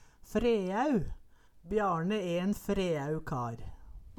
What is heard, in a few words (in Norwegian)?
"freæu", Numedalsmål; Bjarne e en freæu kar